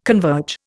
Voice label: "converge", Indian